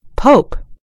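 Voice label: "pope", American English; gcompris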